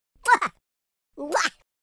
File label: happy, speech, Madchester